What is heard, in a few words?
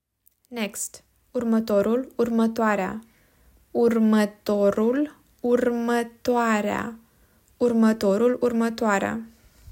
"Next", romanian